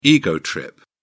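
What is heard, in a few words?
"ego trip", British English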